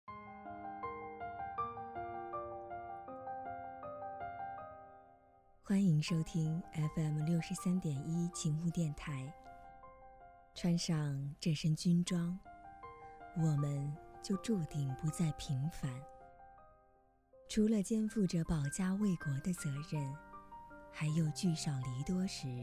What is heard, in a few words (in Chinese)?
电台成品 音乐